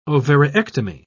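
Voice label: "ovariectomy", British English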